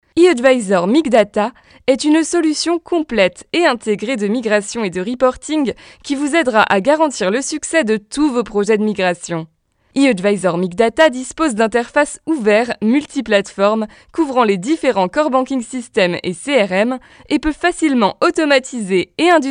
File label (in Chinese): 法语女, 外语, 小语种